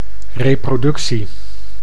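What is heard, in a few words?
"reproductie", Dutch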